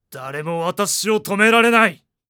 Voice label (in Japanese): 低音; 男性 「誰も私を止められない」; パワフル